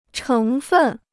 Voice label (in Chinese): "成分", Chinese